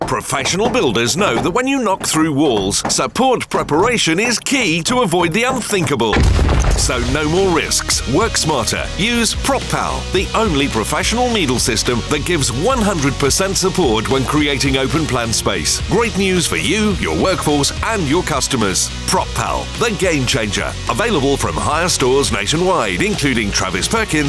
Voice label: Ad, radio; Prop Pal; Gamechanger